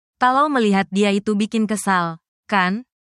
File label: negative phrases indonesian, insults